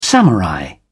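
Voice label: "samurai", British English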